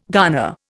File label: "garner", Indian